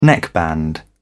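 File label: "neckband", British English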